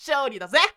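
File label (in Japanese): "shonen syoridaze", Japanese boy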